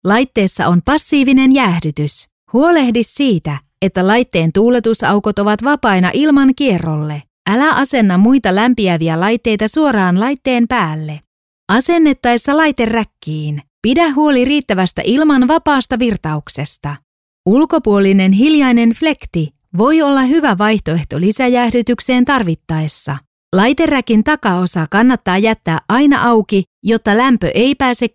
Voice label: Finnish elearning

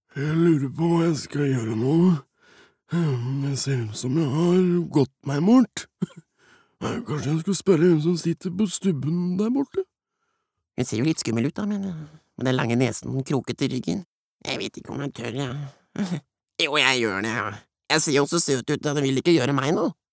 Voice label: no dub character

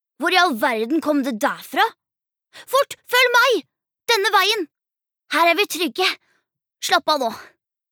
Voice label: no dub character

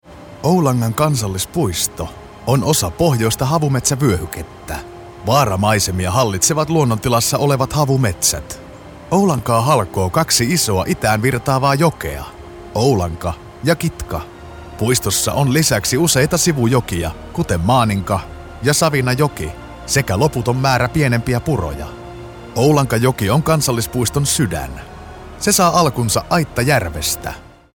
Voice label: Finnish documentary

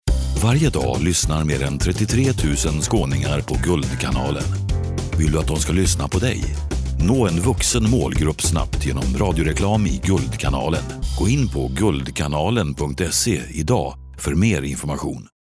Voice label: se commercial, male; svensk

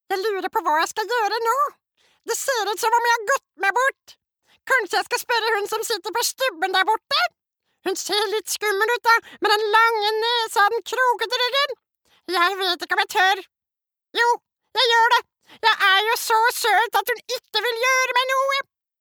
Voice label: no dub character

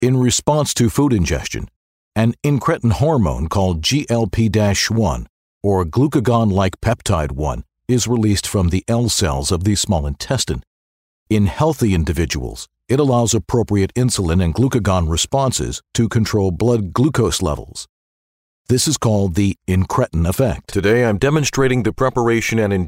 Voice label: US medical

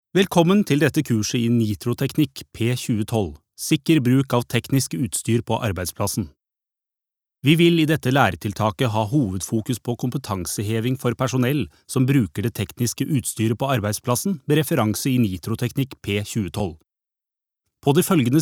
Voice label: no elearning

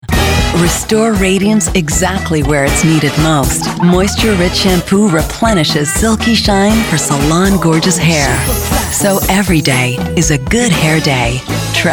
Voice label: US commercial mix

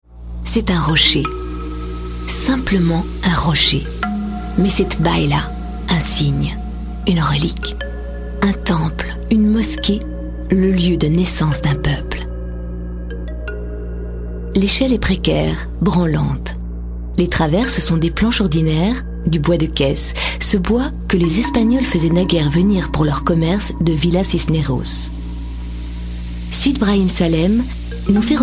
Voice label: fr documentary

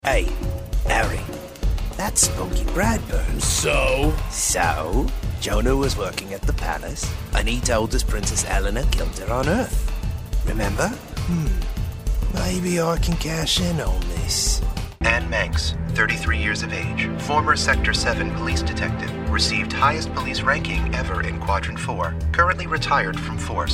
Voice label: us characters

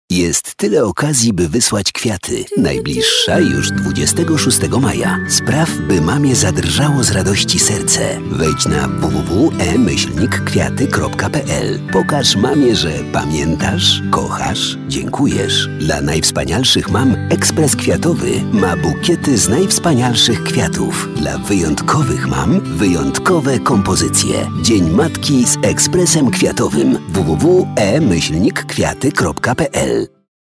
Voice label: pl commercial

